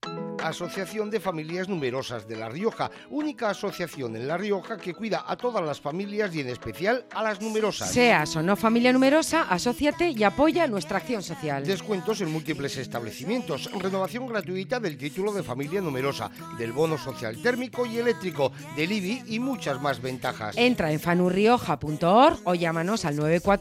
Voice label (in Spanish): Cuña; COPE